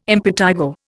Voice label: "impetigo", Indian